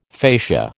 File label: "facia", American